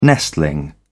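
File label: "nestling", British English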